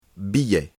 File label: "ticket", French